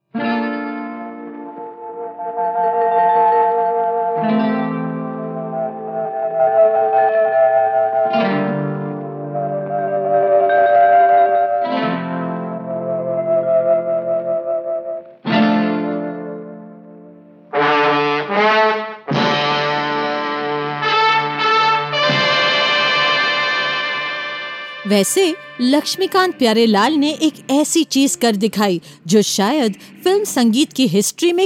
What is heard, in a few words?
voice over